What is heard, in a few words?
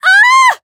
Voice damaged; orleya